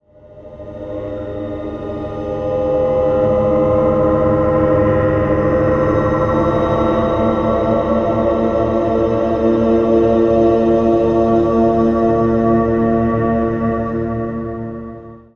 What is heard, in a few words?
voice boy, ethno, 125